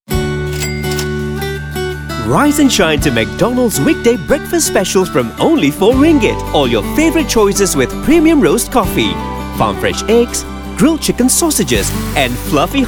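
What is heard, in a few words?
English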